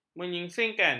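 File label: "menyingsingkan", Malay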